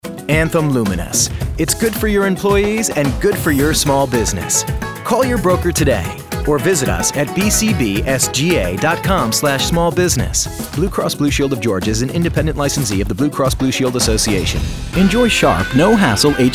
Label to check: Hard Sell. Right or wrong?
Right